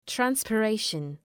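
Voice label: "transpiration", English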